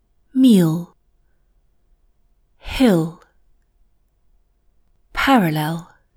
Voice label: Mandarin, British accent; English Pronunciation Errors made by Mandarin Speakers

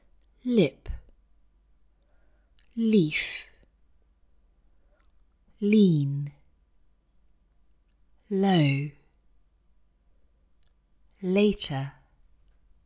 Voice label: Russian, British accent; English Pronunciation Errors made by Russian Speakers

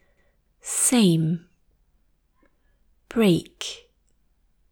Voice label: French, British accent; English Pronunciation Errors made by French Speakers

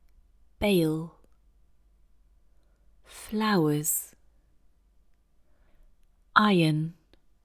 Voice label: Italian; English Pronunciation Errors made by Italian Speakers

